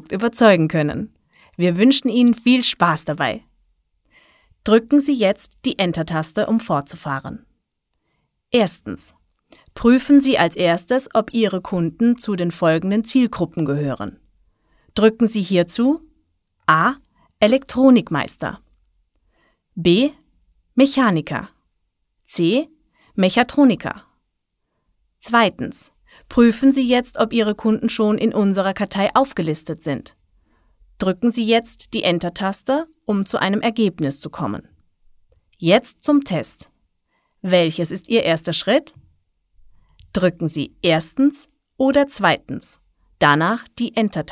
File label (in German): elf e learning; sprecher